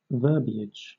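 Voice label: "verbiage", British English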